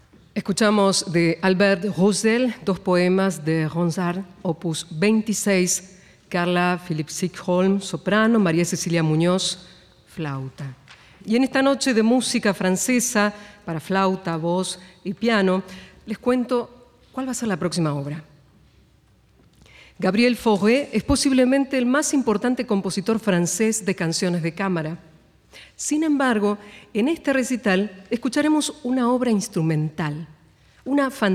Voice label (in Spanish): locutora